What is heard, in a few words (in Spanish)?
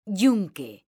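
"YUNQUE", Spanish, female; mekanta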